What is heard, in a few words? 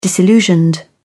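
"disillusioned", British English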